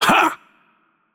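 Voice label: Russian attack heavy voice; bloom minigame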